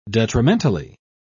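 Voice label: "detrimentally", British English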